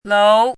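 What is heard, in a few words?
"lou", pinyin, second tone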